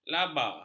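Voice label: "laba", Malay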